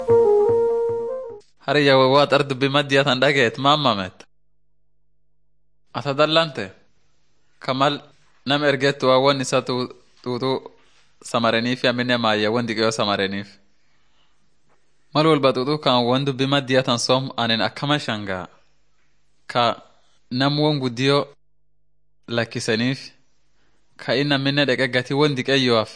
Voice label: teaching tape orma; bible lessons